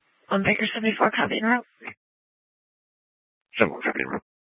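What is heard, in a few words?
Police Department Dispatch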